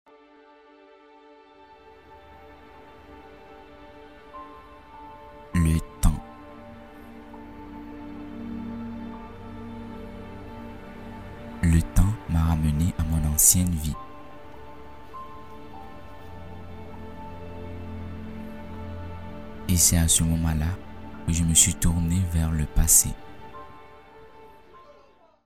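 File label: African French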